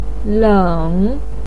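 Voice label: "leng", Mandarin pinyin, third tone; cold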